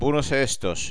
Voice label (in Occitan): "bonas-hestas", Gascon